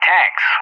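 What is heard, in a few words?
"tanks", English; JTAC; Target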